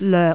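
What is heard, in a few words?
"loek6", Cantonese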